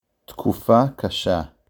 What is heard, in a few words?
a hard time, Hebrew